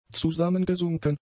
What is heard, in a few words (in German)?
"zusammengesunken", German; adjectives